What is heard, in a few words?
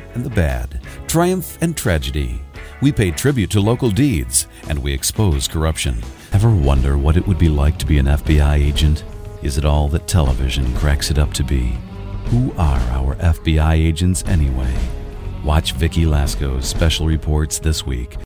TV news imaging